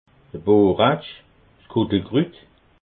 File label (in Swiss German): "boretsch", alsace